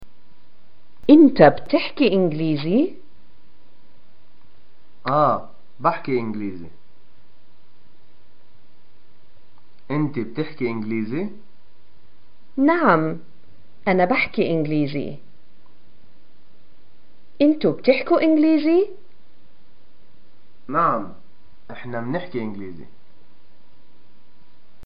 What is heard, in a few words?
do you speak english, arabic